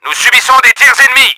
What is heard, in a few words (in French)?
UNDER ATTACK, french; Battle Engine Aquila